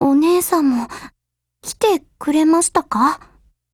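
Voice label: LOADING, Japanese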